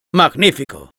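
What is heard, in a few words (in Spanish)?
positive vocalization, Spanish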